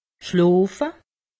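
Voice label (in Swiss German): "schloofe", Alsatian; medecine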